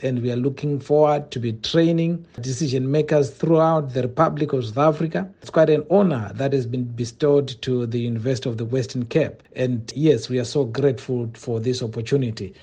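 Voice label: English; Energy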